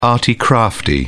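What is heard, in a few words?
"arty crafty", British English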